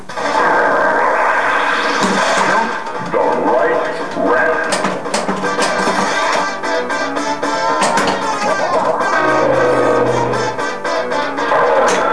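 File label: "Shoot the right ramp!", pinball; MILLIONS; Creature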